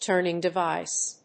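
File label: "TURNING DEVICE", English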